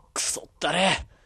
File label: damage, rpg voice